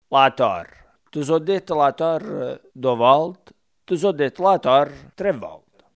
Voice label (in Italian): torre, coratino